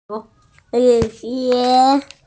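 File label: "rain boots", hearing impaired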